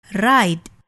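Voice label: "ride", English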